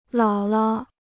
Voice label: "姥姥", Chinese

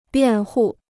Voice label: "辩护", Chinese

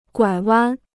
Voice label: "拐弯", Chinese

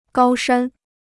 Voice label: "高山", Chinese

